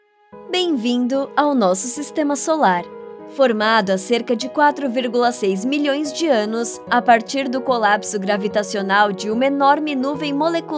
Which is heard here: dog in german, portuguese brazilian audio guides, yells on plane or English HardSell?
portuguese brazilian audio guides